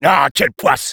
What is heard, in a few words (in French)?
Engineer negative vocalization, fr